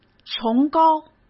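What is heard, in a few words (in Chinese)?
"崇高", Mandarin Chinese; hsk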